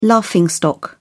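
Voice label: "laughing stock", British English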